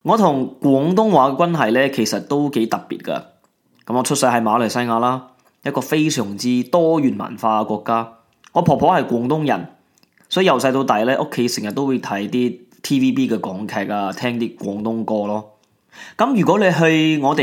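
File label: Chinese, general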